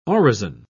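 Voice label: "orison", British English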